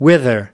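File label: "wither", English word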